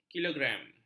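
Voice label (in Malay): "kilogram", Malay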